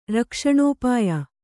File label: "ರಕ್ಷಣೋಪಾಯ", kannada